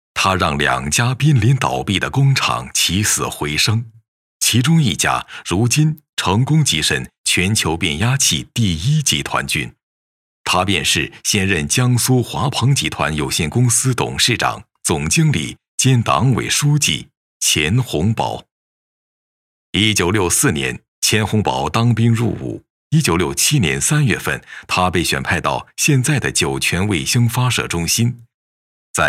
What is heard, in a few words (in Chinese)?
男 人物 纪录片 客观 讲述; 时代先锋